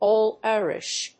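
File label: "Old Irish", English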